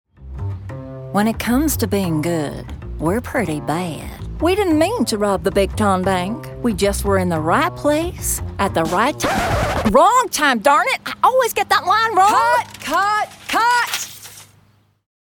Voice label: southern american bad gal